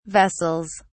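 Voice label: "vessels", British English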